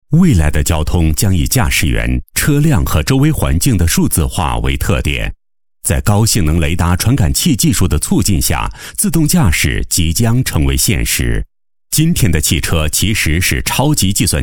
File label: chinese voice over male narrator